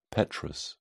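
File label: "petrous", English, British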